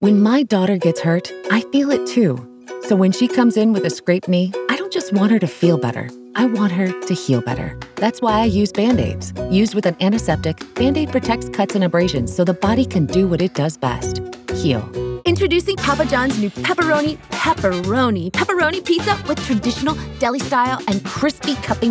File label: Commercial Reel